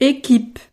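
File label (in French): "equipe", French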